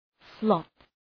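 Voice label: "slot", English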